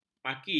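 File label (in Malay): "maki", Malay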